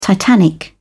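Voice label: "titanic", British English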